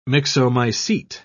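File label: "myxomycete", British English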